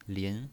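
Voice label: "lin", pinyin, Mandarin Chinese, second tone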